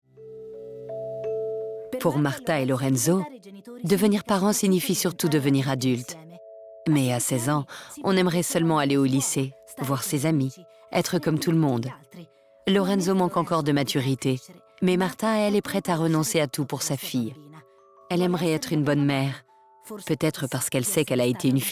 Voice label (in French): NARRATION MAMAN; MAMAN AVANT 16 ANS